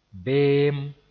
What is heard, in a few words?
"beem", Mooré